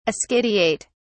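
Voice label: "ascidiate", British English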